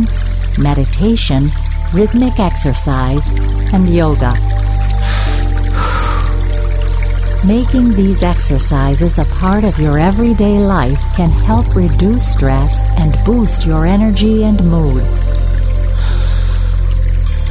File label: Relaxation mixed